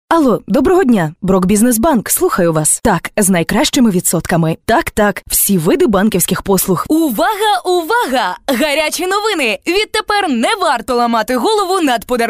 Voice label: locutora ucraniana ukrainian voiceover, Ukrainian female voice over talent